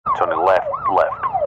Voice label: emergency police radio male officer in car sirens turning left left